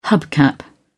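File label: "hubcap", English, uk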